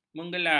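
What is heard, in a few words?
"menggelar", Malay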